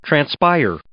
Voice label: "transpire", English